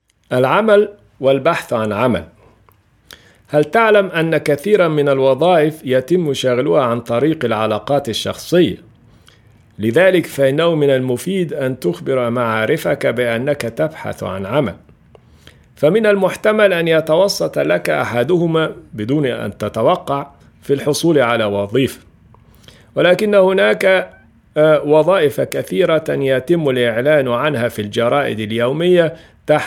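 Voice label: Arabic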